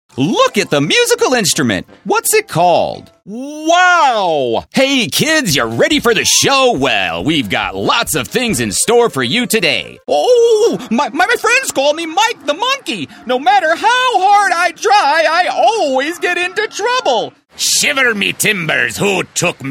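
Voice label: American English, toys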